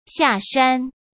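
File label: "下山", Mandarin Chinese; chinese-flashcards; hsk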